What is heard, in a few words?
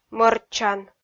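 "мӧрччан", Komi-Zyrian, female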